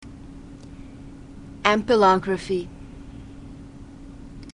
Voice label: "ampelography", glossary